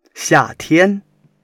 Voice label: "xia4 tian1", Chinese